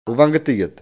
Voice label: "banchetto", coratino; *bank

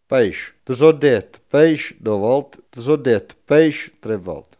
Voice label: peggio, coratino

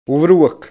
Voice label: broccolo, coratino

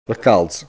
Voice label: calze, coratino

